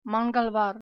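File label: "vtornik" Russian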